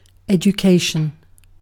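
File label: "education", British English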